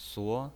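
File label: "suo", Mandarin Chinese pinyin, second tone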